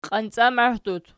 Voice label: "qiancamahdut", Archi

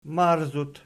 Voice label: "marzut", Archi

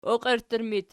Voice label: "oqierttur", Archi

